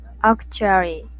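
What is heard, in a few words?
"actually", Japanese female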